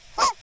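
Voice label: Voice Acting es, female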